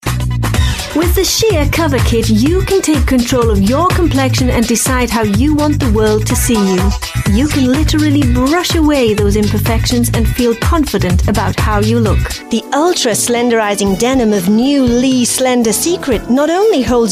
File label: commercials